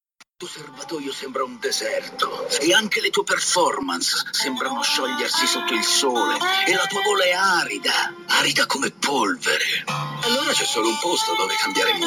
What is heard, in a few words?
coca cola spot radio